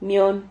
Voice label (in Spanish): "mion", Silabas